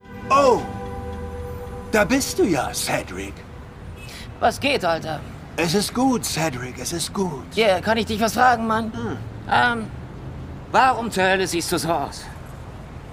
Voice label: Synchron, maenner; Key&Peele

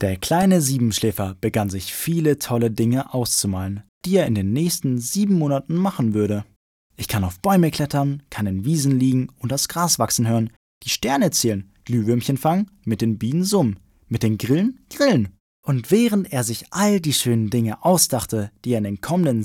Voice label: jungen; Siebenschläfer

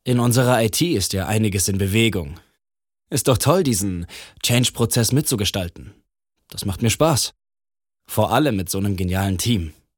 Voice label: Imagefilm, maenner; Berenberg Privatbank

